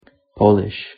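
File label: "polish" "nation", English pronunciation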